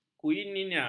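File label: "kuinina", Malay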